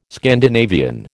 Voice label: "Scandinavian", American

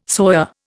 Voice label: "soya", Indian

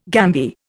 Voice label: "gambier", Indian

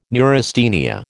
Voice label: "neurasthenia", American

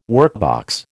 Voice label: "workbox", American

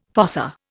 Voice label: "fossa", Indian

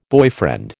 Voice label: "boyfriend", American

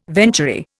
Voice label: "venturi", Indian

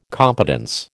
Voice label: "competence", American

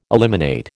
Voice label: "eliminate", American

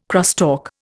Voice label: "crosstalk", Indian

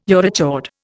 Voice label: "urochord", Indian

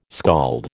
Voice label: "skald", American

